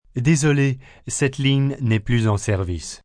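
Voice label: Not In Service French, Telephone operator, French, male; Telephone Voice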